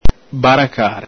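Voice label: "baracare", Veneto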